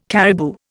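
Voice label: "caribou", Indian English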